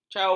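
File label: "caung", Malay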